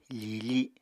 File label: "fiifi", Apatani